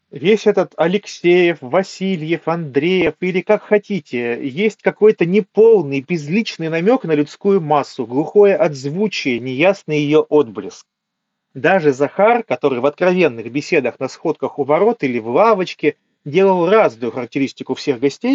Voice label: bt mic; qcy h4